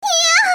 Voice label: Iyah Anime Girl